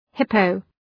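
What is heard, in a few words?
"hippo", English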